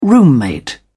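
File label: "roommate", English, British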